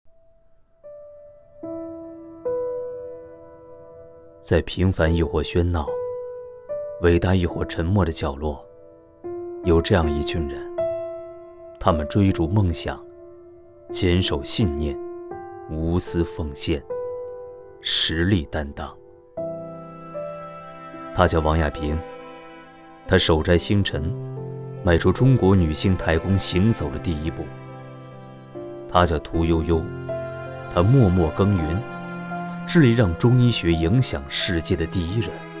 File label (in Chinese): 公益广告; 伟大的人